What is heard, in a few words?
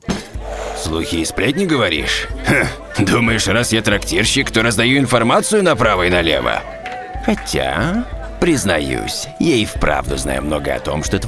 male russian video game character